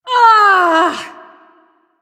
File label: Ability Long Cast, Barks; Warframe; Corpus Lich; Persona B